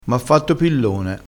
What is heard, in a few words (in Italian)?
"pillone", dialettale, crespinese